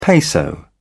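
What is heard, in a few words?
"peso", British English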